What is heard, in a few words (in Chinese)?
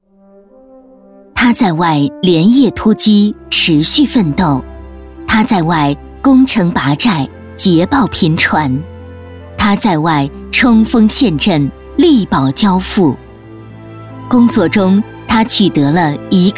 女 颁奖专题片 激情大气 感动人物